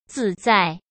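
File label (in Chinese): "自在", Mandarin; chinese flashcards; hsk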